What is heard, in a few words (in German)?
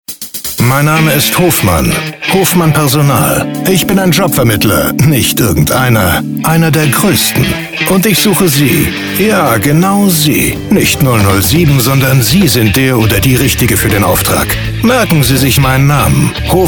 radiospot a la james bond